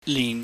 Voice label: "lin", Chinese